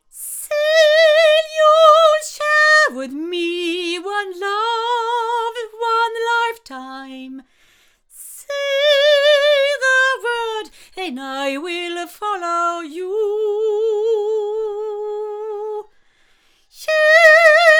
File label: Blue Reactor cardioid female